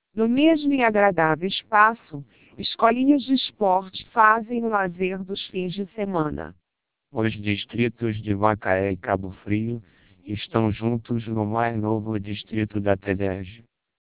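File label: Portuguese speech, TWELP 700 bps vocoder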